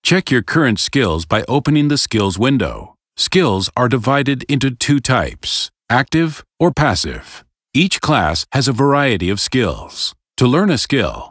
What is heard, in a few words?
tutorial voice; lineage2